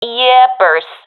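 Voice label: affirmation, professional female; Counter Strike Model Pack; CS:GO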